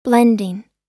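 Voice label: "blending", American English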